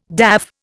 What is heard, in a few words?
"daff", Indian